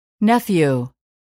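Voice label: "nephew", English word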